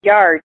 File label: "Yards", American English, female